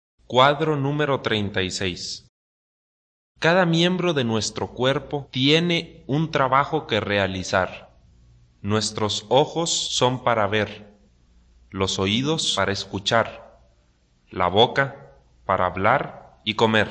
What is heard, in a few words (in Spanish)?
good news spanish mexico